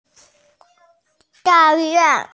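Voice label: "da4yue1", Mandarin Chinese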